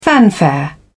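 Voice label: "fanfare", British English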